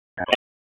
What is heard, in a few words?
Police Northwest District Tac 1; Raleigh; wake